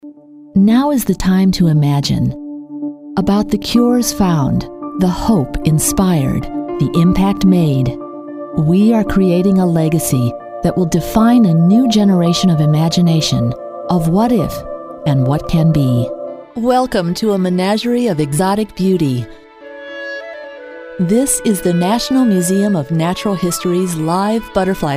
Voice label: Narration